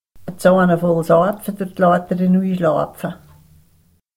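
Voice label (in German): Sax Spruch, Sennwalder Dialekte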